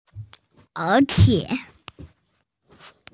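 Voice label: "moreover", Mandarin Chinese, female; er3qie3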